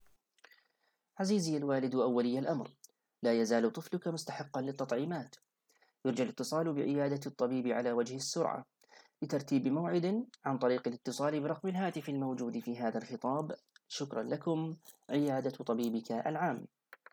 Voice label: Arabic